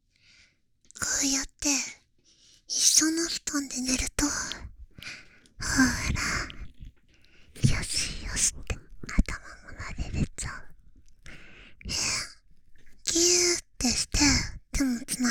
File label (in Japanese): 添い寝シチュエーションボイス